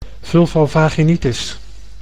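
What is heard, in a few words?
"vulvovaginitis", Dutch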